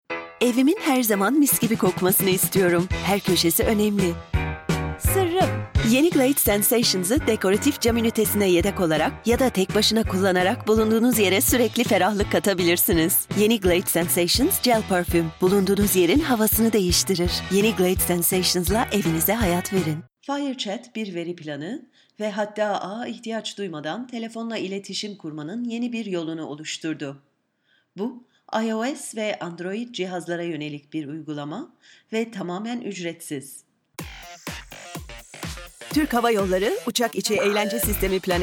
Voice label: female turkish commercial compilation